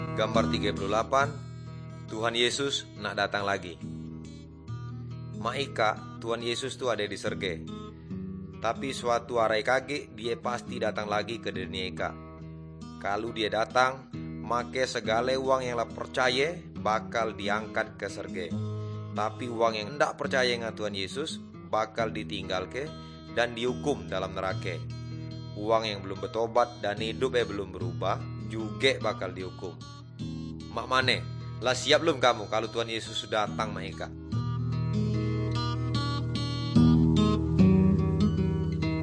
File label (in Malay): good news melayu tanjung raja